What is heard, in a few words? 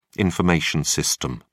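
"information system", British English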